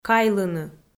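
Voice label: "кайлыны", Komi, female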